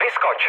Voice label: bailout, speech cze, mood low; War Thunder